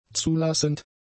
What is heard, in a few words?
"zulassend", German; adjectives